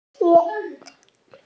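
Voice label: "you2 xi4", Mandarin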